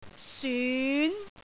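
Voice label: "syun2", Cantonese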